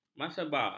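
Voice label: "maserba", Malay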